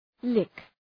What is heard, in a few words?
"lick", Προφορά